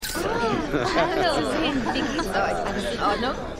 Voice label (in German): Frau Bei Taufe; Scandal